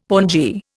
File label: "pongee", Indian; Dictionary